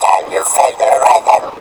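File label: effects talk, Funky Vocals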